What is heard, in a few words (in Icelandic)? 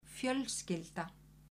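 "fjölskylda", Icelandic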